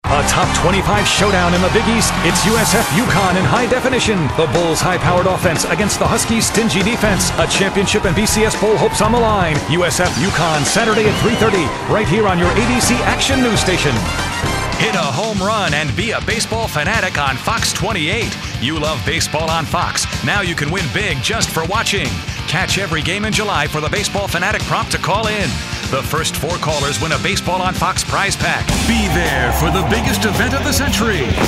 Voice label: promo, male voice talent

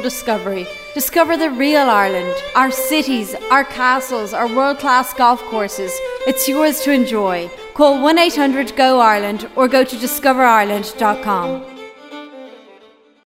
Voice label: irish; foreign languages

